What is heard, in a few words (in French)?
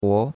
"huo2", chinois; Cours-de-Chinois